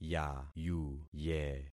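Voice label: "yayuye", concatenated syllables; Affixing experiment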